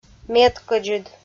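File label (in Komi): "медкӧдзыд", Komi, female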